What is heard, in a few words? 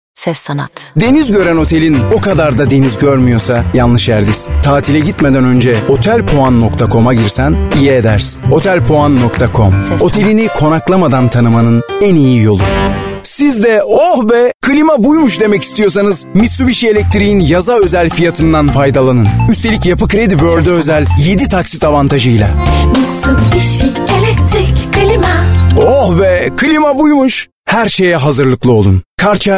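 Turkish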